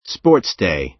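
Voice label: "sports day", American English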